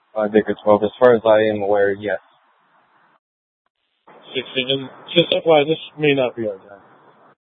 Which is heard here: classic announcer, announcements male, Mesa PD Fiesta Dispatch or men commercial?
Mesa PD Fiesta Dispatch